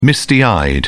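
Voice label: "misty-eyed", British English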